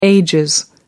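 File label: "ages", British English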